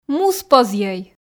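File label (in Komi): муспозйӧй, Komi, female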